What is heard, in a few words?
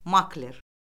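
"makler", Azerbaijani; Orfoqrafiya Lüğəti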